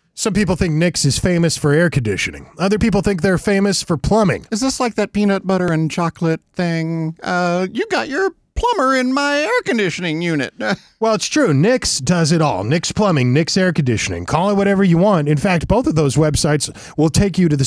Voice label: RADIO AD; nicks plumbing radio ads